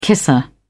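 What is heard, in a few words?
"kisser", British English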